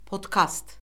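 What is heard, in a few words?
"podkast", Azerbaijani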